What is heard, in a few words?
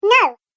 Little Elf Says "No", cartoon voice